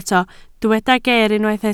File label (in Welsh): "geer" Welsh